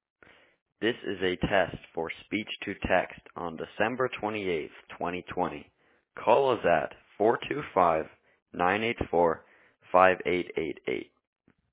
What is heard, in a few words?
speech to text voicemail